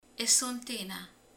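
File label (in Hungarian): "tejfel", Hungarian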